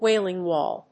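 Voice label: "Wailing Wall", English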